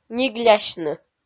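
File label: "ниглясьны", Komi, female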